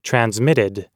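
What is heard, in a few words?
"transmitted", American English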